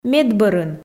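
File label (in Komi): "медбӧрын", Komi, female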